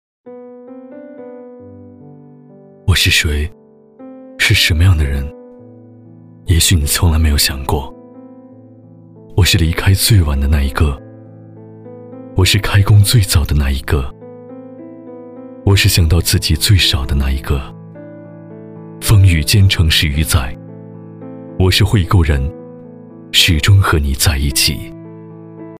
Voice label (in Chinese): 男 广告 旁白; 我是谁旁白